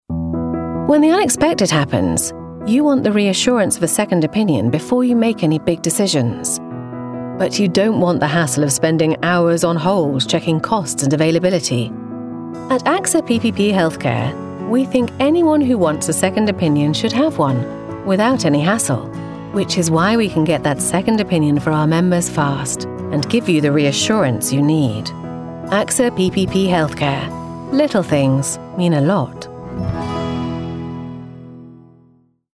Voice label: Commercial; AXA Healthcare